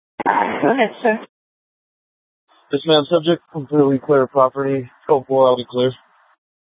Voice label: Valley Metro Public Transit System Light Rail Security, scanner